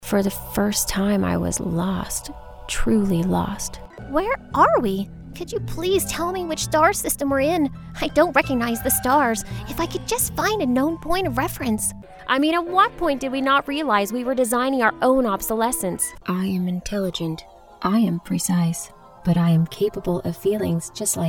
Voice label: animation